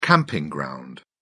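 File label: "camping ground", British English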